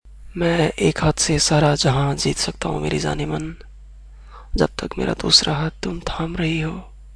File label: Romantic lines in Hindi